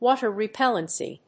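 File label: "WATER REPELLENCY", English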